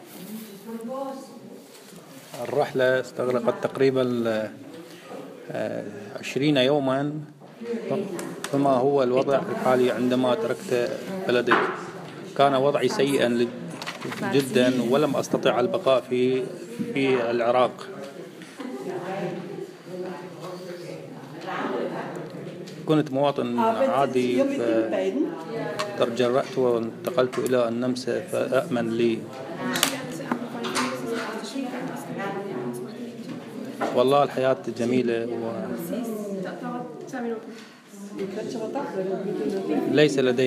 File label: Arabic